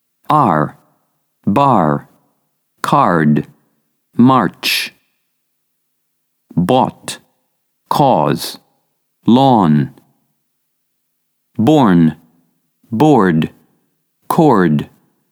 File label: Pronunciation Basic